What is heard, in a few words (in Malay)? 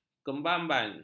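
"kebambam", Malay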